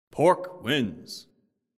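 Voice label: announcer win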